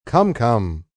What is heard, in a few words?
Come Come, male